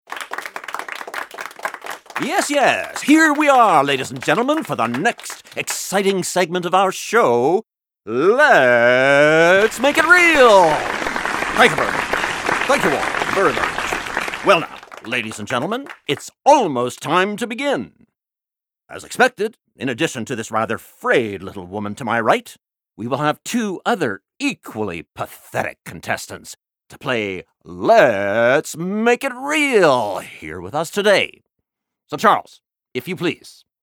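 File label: Character, male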